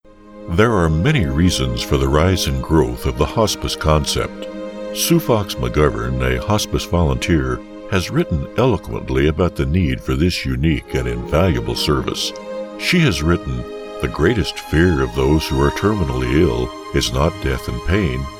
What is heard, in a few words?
psa; Reason for Hospice